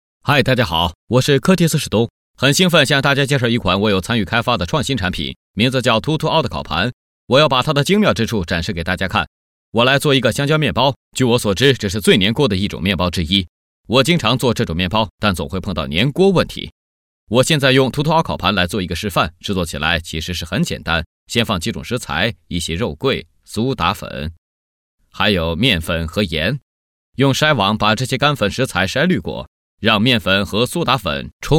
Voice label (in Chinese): 男 译制片风格, 国语男声配音; 凸凸凹烤盘